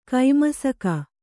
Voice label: "ಕೈಮಸಕ", Kannada